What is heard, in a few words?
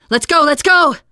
emphatic go, teen girl; player; survivor; voice